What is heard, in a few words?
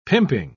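"pimping", British English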